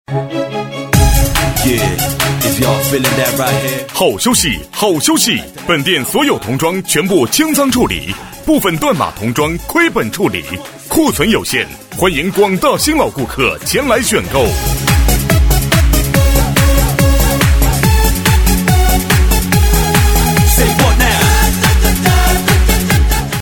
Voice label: 【促销】童装全部清仓男 激情

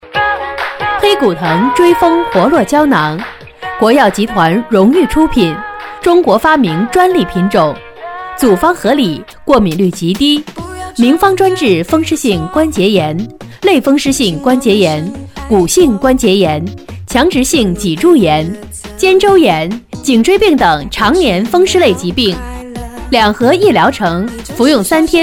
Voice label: 促销 女 舒缓; 黑骨藤追风药

